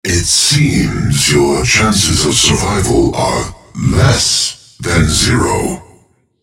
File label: taunt, en US